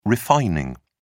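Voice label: "refining", English, uk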